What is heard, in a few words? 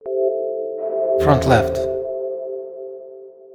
audio channel front left